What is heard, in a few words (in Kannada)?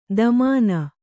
"ದಮಾನ", Kannada